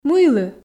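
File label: "мыйлы", Komi, female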